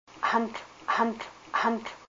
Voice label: water, Haida language; gantl